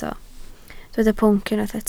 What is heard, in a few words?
"pwnc", Welsh